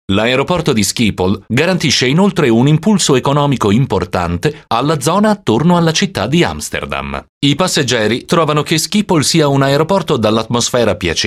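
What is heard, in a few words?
Italian, male, corporate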